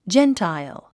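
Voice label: "gentile", English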